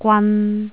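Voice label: "gwan3", Cantonese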